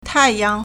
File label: "tai4yang2", Chinese